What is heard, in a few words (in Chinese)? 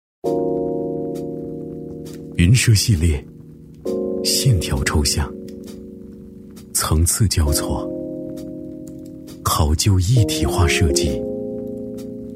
男, 品质广告, 品质磁性; 大气主流; 高端大气